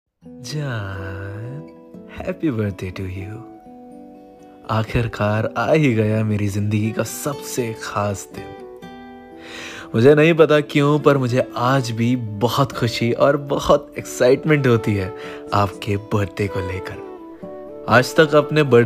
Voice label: Happy Birthday Jaan Best Birthday Wish Ever Poetry